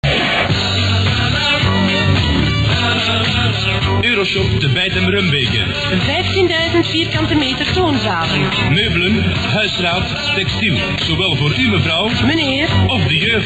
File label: commercial